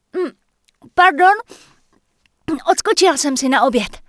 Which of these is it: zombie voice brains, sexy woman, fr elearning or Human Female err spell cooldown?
Human Female err spell cooldown